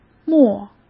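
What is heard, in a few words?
"墨" Mandarin Chinese; chinese flashcards; hsk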